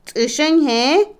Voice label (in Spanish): Otomí, mujer; bajo, poco profundo; INALI